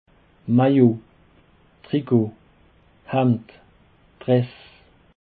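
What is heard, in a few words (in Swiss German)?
"maillot", Alsatian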